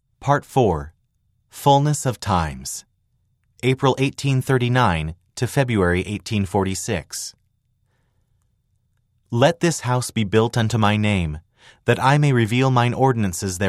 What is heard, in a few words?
male voice; part 4 fullness of times; eng